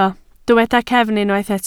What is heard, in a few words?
"cefn", Welsh